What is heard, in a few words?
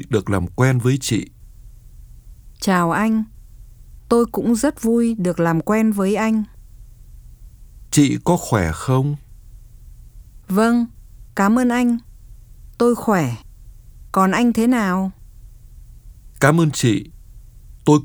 Dialog